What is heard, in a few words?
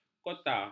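"kota", Malay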